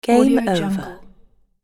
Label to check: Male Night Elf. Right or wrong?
Wrong